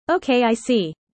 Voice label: user fast; Animal friends